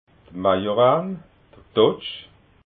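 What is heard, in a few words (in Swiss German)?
"majoran", Alsatian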